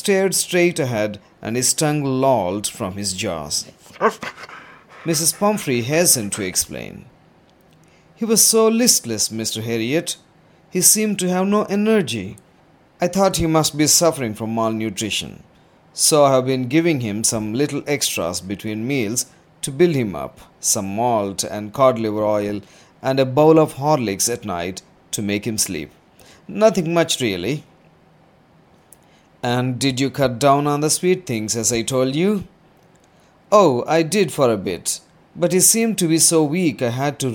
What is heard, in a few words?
audio with sound effects; A triumph of surgery